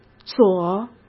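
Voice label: "左", Mandarin Chinese; chinese-flashcards; hsk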